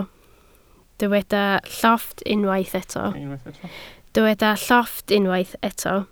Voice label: "llofft", Welsh